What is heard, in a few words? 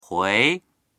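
"hui2", Mandarin Chinese; 回; 帰る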